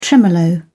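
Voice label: "tremolo", British English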